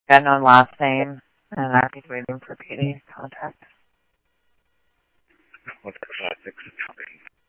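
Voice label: Simulcast police department, scanner audio trunk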